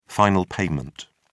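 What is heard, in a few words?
"final payment", British English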